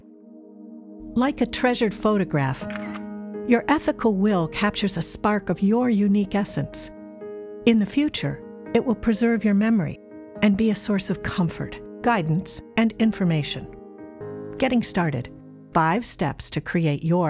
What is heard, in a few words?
narration; ethical will